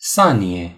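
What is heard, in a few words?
"sled", Romanian; gcompris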